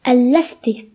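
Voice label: "trash", Breton; gcompris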